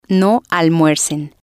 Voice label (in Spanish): no almuercen, Spanish